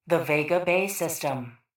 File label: PA System; Vega Bay